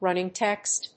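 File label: "running text", English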